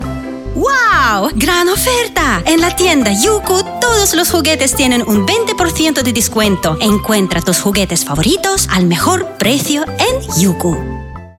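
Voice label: Spanish, female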